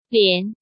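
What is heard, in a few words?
"Lián", Chinese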